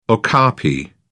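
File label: "okapi", British English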